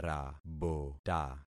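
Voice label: "rabota", concatenated syllables; Affixing experiment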